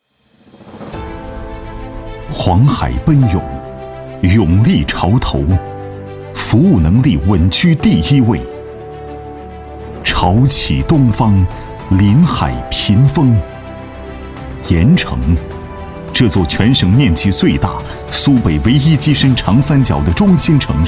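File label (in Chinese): 男【宣传】; 盐城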